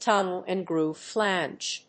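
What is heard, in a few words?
"tongue and groove flange", English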